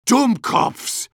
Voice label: negative vocalization; medic